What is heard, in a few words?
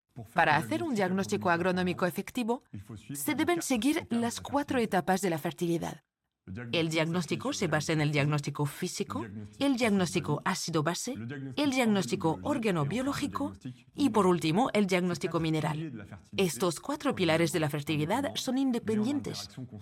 Training VO European Spanish